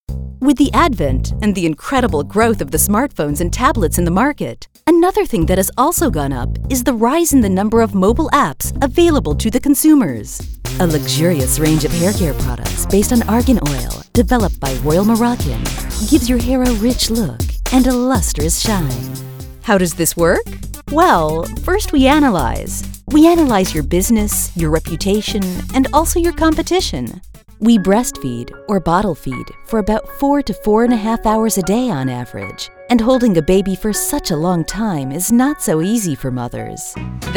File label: woman american